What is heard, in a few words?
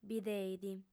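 "bideit", female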